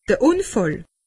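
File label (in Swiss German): "unfall", Alsatian; medecine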